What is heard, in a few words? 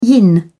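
"yin", British English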